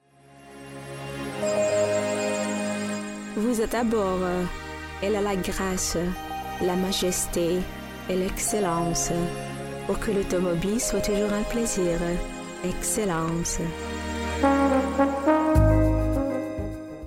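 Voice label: Arabic French; GoLocalise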